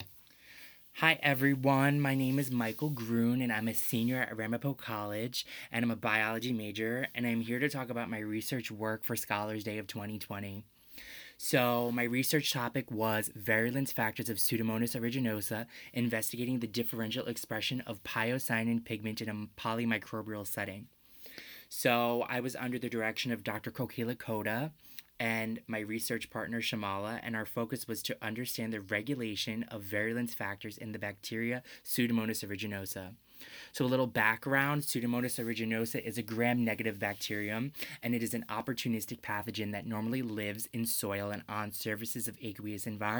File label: Voice Over; Scholars Day